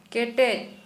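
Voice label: Santali female hard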